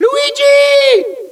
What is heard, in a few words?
luigiiii, Echo inside caves, underground; DS; ML BOWSERSINSIDE; Mario